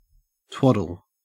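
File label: "twaddle", Australian English; pronunciations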